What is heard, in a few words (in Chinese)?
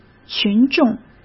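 "群众", Mandarin Chinese; hsk; chinese-flashcards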